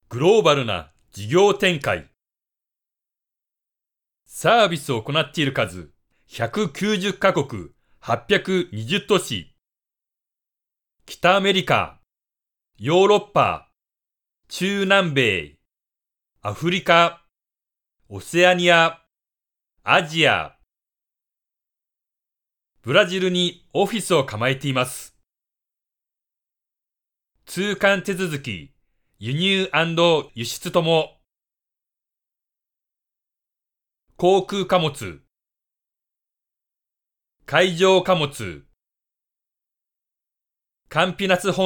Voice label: 日语男 公司介绍

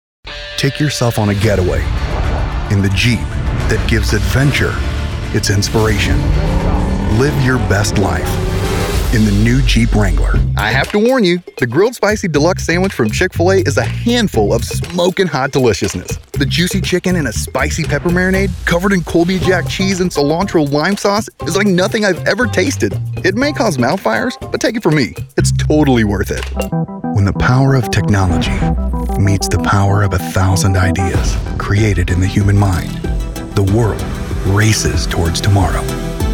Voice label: 外籍英男 电视广告 大气磁性

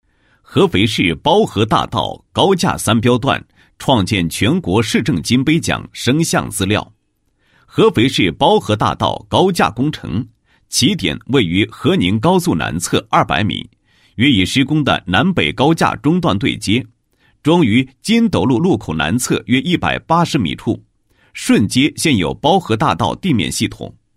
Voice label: 男-解说词; 包河三标市政金杯解说词

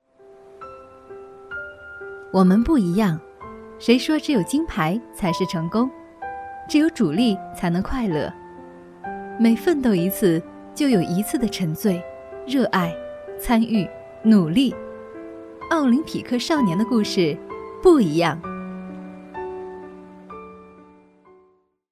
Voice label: 女 专题 奥林匹克少年

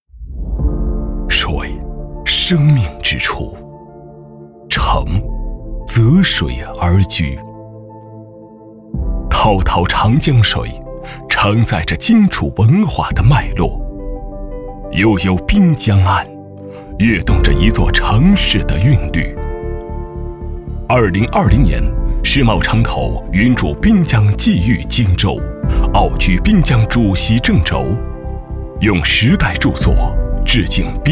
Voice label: 男 大气磁性; 世茂

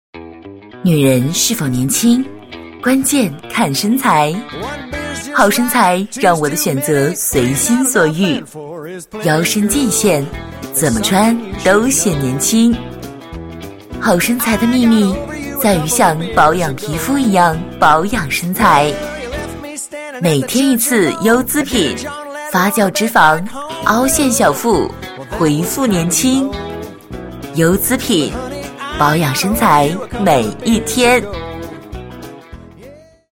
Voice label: 广告, 磁性女配; 优姿品; 国语女声配音

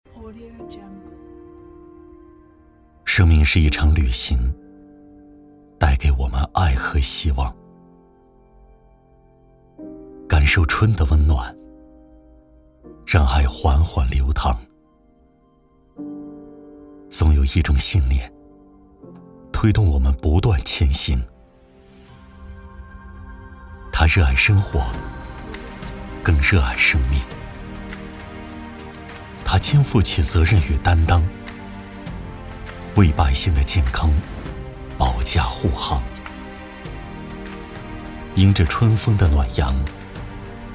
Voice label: 男 走心感动 援疆

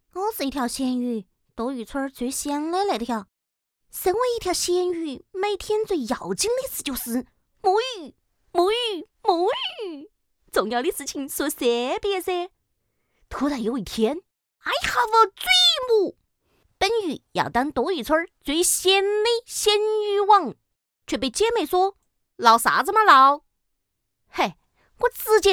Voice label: 女 四川话女声 鬼畜; 摸鱼

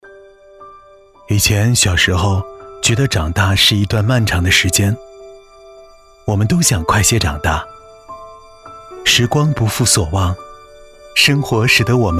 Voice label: 男 内心独白 旁白小时候

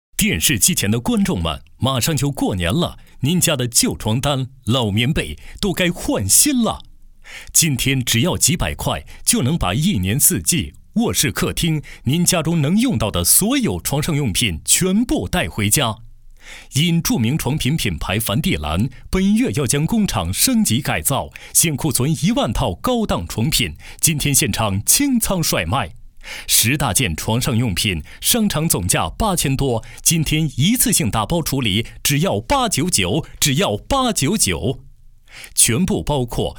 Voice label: 男 电购广告 床品十件套

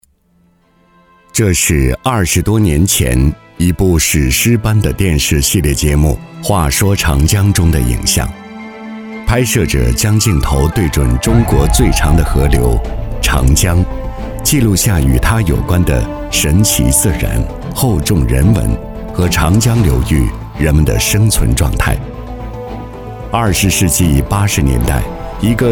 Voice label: 男 纪录片; 再说长江; 练习

